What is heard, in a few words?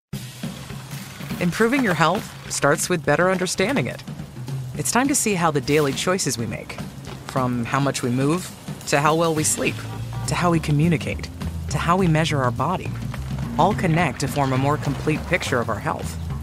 Commercial upbeat friendly warm bubbly energetic helpful fun relatable smile, Female Voice Over